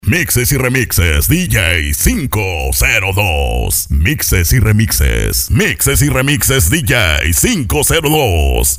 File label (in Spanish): VOZ OFF MX